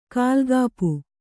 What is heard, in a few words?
"ಕಾಲ್ಗಾಪು", Kannada